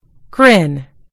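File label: "grin", British English; gcompris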